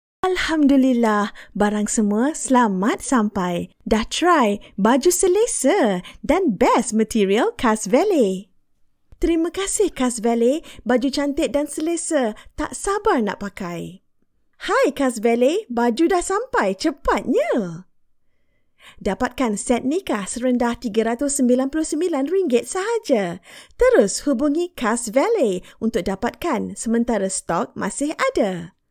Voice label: 马来西亚语女, 外语, 小语种

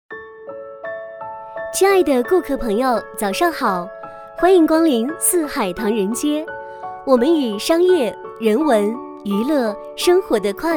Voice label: 女 多媒体 广播提示 商场广播温馨; 四海唐人街

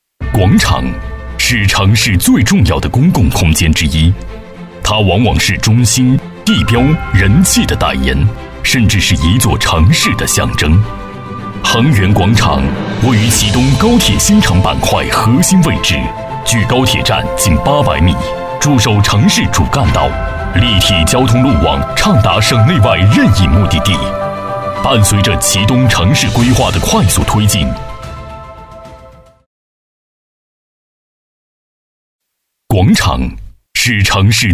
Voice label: 多媒体 项目规划 广场专题 激情, 男国

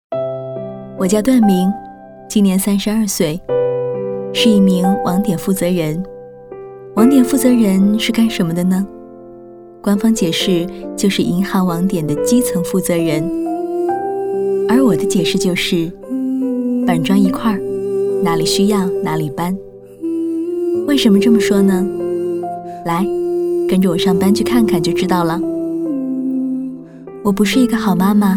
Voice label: 动画 角色; 我叫段明

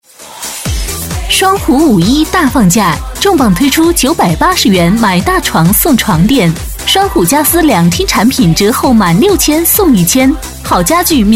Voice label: 女国 广告 节日 磁性; 双虎家私活动

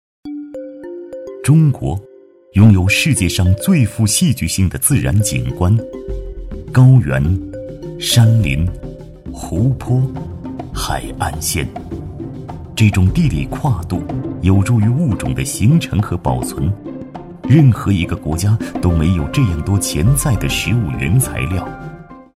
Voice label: 男国 纪录片; 舌尖; 舌尖感

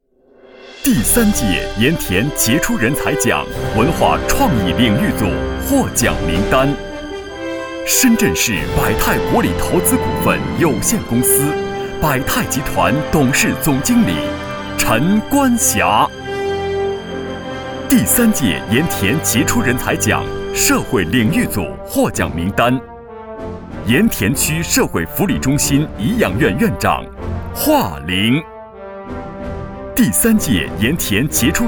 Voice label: 专题 颁奖, 男国; 盐田杰出人才奖

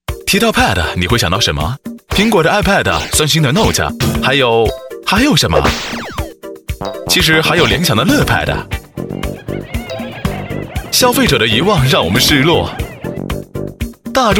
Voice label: 宣传片 病毒, 男国; 联想乐PAD

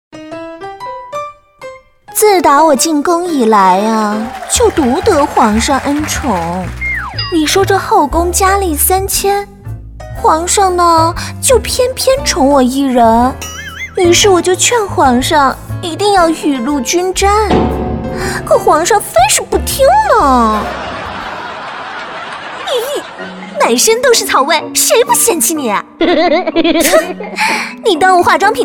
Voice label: 动画 角色; 女

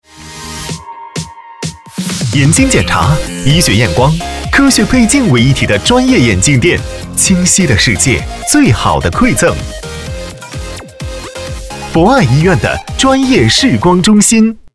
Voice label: 男 广告 年轻; 医院医药; 博爱眼科广告

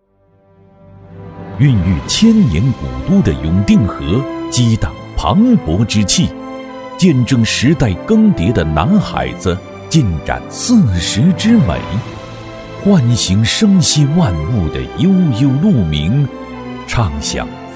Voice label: 男国 宣传片 城市 城市宣传 浑厚; 大兴城市宣传

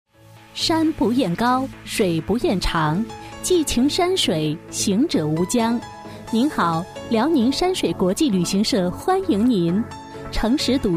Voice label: 女国 广告 旅游 亲切; 山水国旅

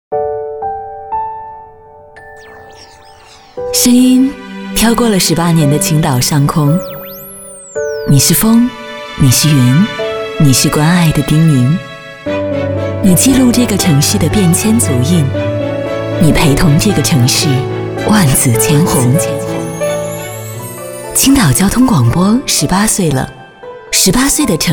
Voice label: 广告 片头片花 交通广播 甜美, 女国

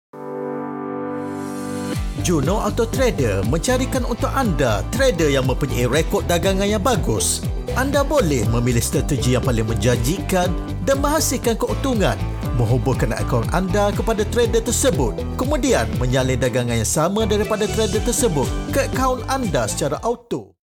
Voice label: 马来西亚语男, 外语, 小语种

